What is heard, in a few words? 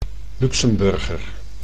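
"Luxemburger", Dutch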